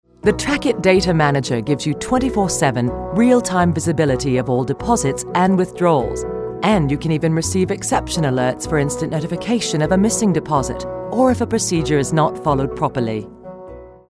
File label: british